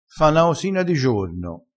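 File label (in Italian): "fa na osina", vocabolario dialettale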